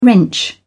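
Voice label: "wrench", English, British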